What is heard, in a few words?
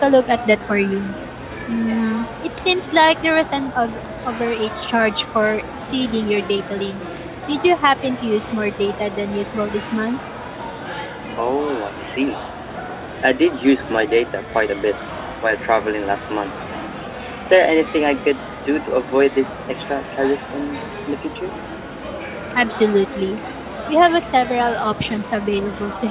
bill query noisy